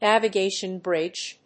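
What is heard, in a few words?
"navigation bridge", English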